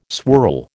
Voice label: "swirl", American

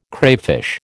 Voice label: "crayfish", American

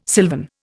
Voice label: "silvern", Indian

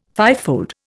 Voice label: "fivefold", Indian

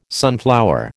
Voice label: "sunflower", American

